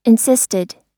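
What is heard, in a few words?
"insisted", US English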